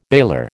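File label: "baler", American English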